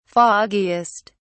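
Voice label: "foggiest", British English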